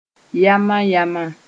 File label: "yãma-yãma", moore